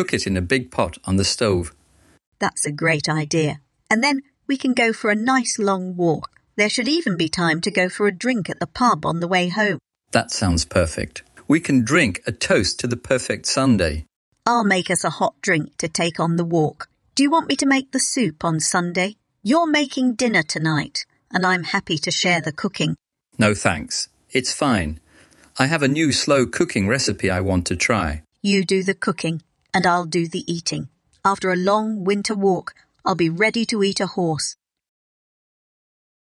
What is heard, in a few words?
Greengrocer Dialogue